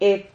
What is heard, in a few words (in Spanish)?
"heb", Silabas